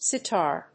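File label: "sitar", English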